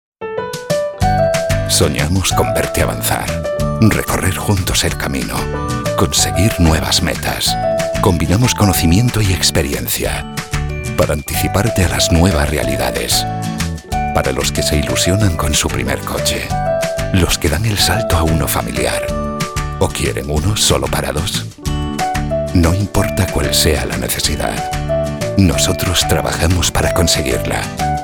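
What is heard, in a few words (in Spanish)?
otros España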